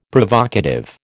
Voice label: "Provocative", American

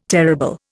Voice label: "terrible", Indian

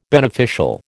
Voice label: "beneficial", American

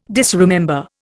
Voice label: "disremember", Indian

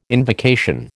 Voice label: "invocation", American

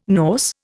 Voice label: "Norse", Indian

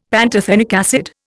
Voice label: "pantothenic acid", Indian; Dictionary

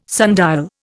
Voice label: "sundial", Indian

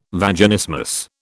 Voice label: "Vaginismus", British

